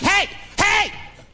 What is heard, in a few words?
"hey hey" chap, voice; ooh ahh